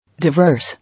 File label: "Diverse" English